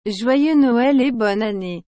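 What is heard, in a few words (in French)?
"Joyeux Noël et Bonne Année", French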